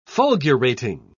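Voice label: "fulgurating", British English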